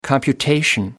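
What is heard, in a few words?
"computation", English, British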